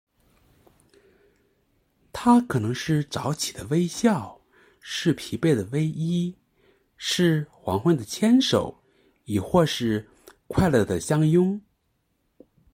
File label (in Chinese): 文案 配音